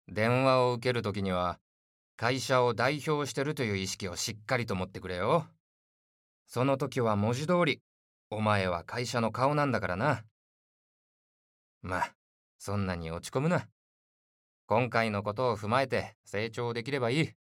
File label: male; 部下を叱る上司